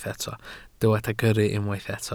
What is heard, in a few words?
"gyrru", Welsh